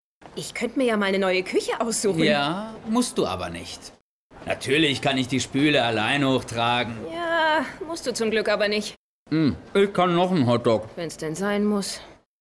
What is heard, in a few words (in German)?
synchron; LOFT VOICES